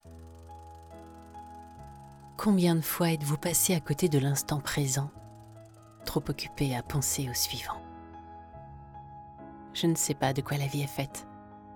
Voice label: Voix Intimist; Senseo

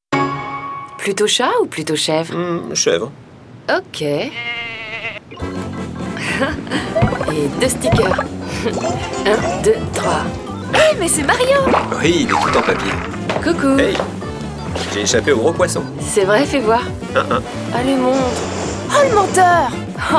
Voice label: Spot TV; NINTENDO DS MARIO

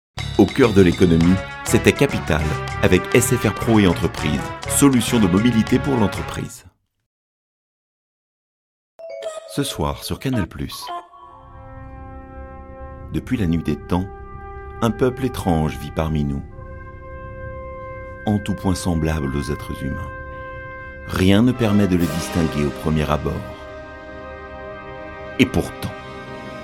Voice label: medley voix antenne

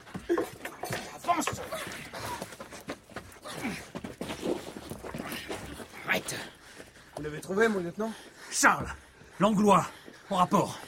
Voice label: Fiction Radio; Lieutenant

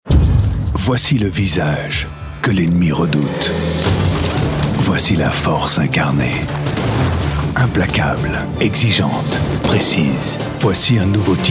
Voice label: directif grave voix off voice over; Medal of honor

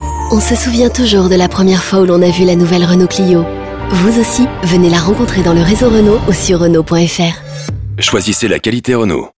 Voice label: droite douce; Renault

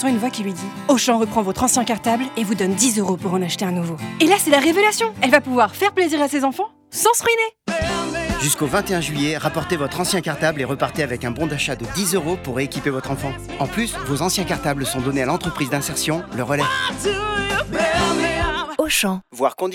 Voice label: rentrée RADIO; Auchan

